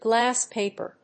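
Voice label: "glass paper", English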